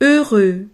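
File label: "heureux", French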